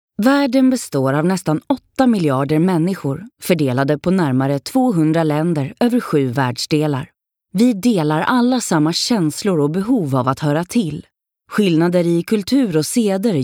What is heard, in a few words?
swedish e-learning